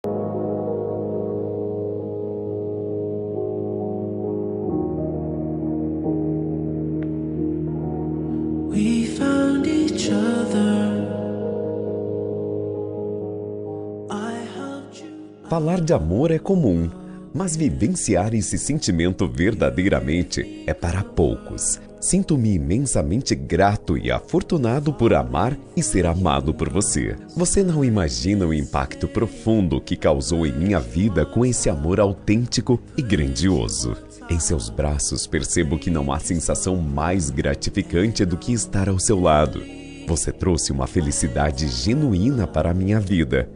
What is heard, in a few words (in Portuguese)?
romântica, Telemensagem Romântica – Voz Masculina